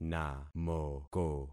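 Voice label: "namoko", concatenated syllables; Affixing experiment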